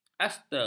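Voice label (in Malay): "aster", Malay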